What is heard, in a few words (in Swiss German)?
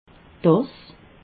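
"tass", Haut-Rhin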